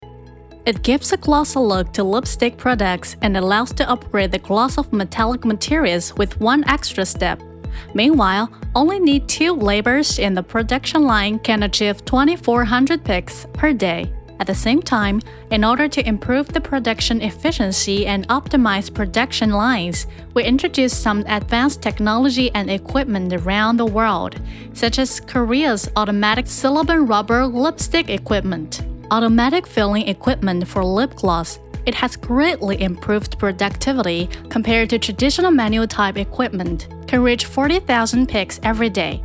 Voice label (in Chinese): 解说平实; 视频背景工厂